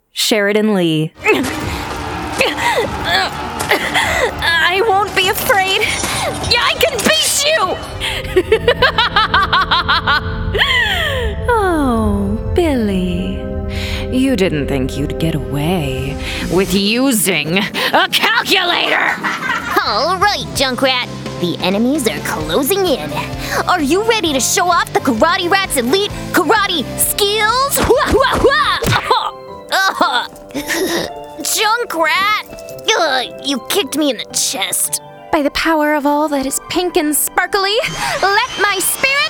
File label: Animation